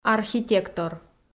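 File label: "архитектор", Komi, female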